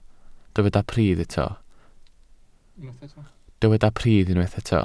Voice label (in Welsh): "pridd" Welsh